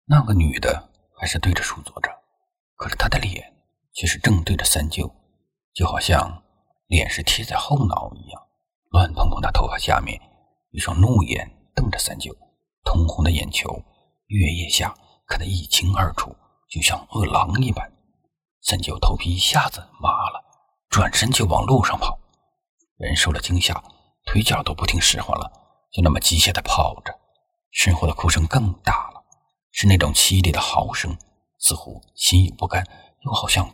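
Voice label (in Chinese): 旁白恐怖悬疑类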